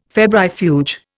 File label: "febrifuge", Indian